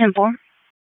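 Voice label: EMS DISP, radio; wake; p25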